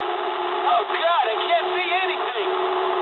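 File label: English searchlite mood low; speech; War Thunder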